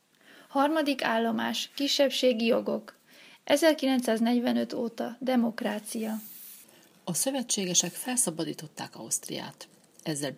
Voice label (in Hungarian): magyar